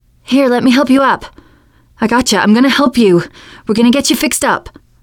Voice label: revive friend, teen girl